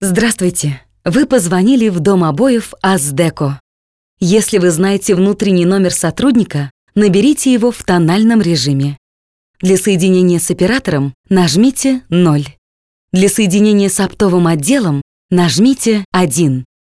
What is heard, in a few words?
IVR